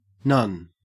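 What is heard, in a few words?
"nun", Australian English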